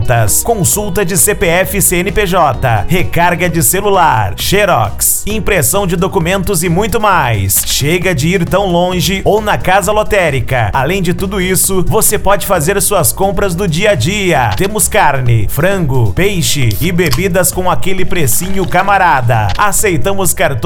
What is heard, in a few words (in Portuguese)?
Locutor Mercearia; Aracaju SE